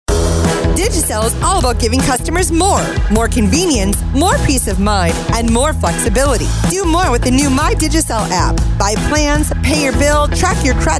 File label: english us and canada female generic